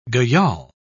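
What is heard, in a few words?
"gayal", British English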